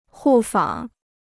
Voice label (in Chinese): "互访", Chinese